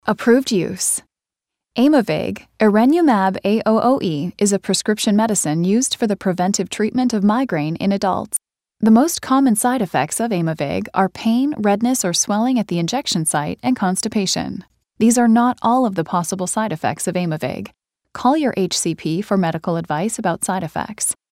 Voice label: english canadian medical narration

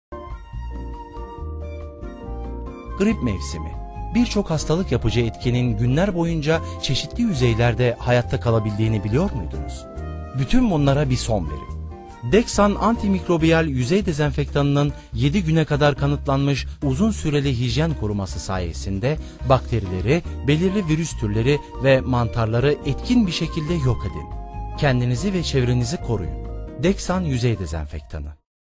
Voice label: turkish promos

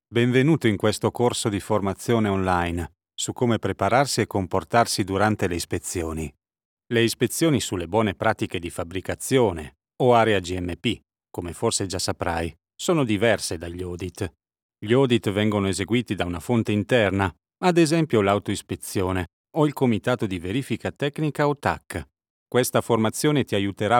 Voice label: Italian e-learning

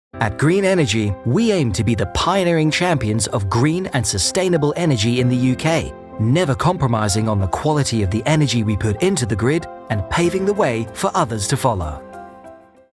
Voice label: english british corporate videos

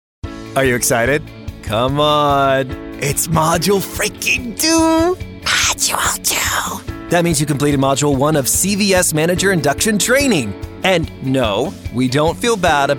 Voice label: english american e-learning

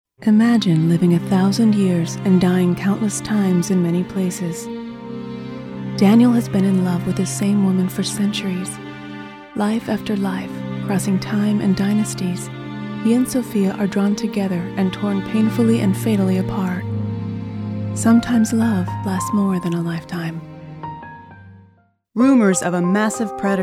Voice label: english american movie trailers

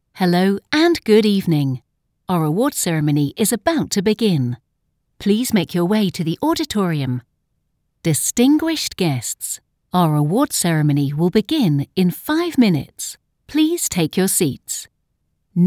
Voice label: english british podcasts

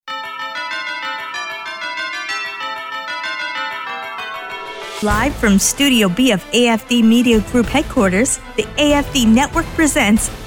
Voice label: english american podcasts

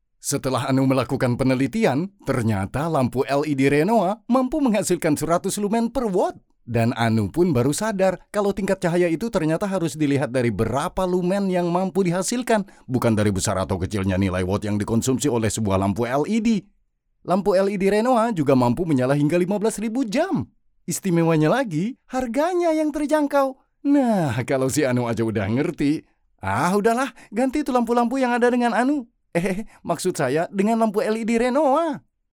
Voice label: Indonesian commercial; professional Indonesia voice actor

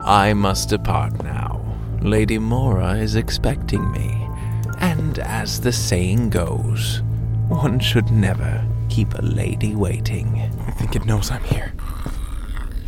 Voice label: english american video games

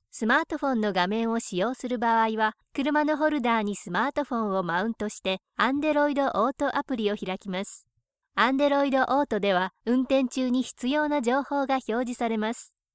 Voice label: japanese e-learning

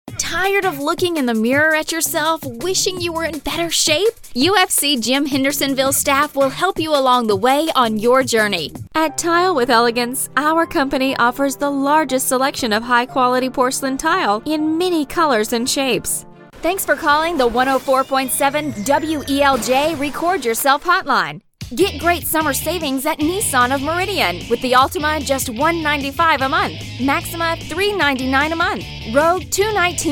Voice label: english american promos

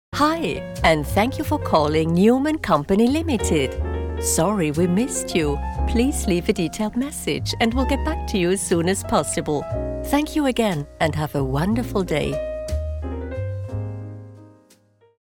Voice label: english ivr

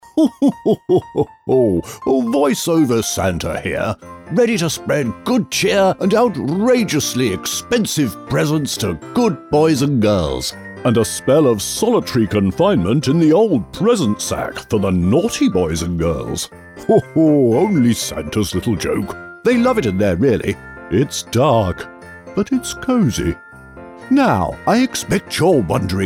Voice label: english british

